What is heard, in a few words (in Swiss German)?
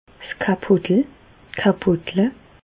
"kapotel", Bas Rhin; medecine; préservatif